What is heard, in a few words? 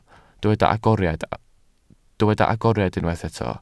"agoriad", Welsh